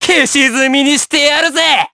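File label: Skill, Japanese; Neraxis; kingsraid; jp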